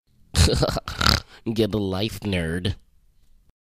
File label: get a life nerd sound effect